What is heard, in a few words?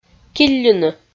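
"килльыны", Komi, female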